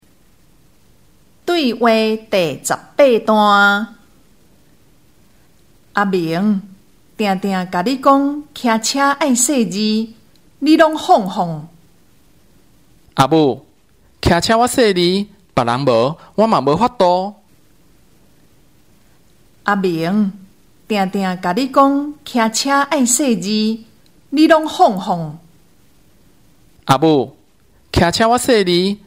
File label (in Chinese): 對話, 閩南語; 對話理解; 閩南語語言能力認證; 閩南語能力測驗題本